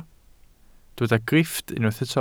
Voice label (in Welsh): "grifft", Welsh